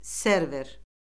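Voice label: "server", Azerbaijani; Orfoqrafiya Lüğəti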